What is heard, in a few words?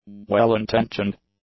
"well-intentioned", English; adjectives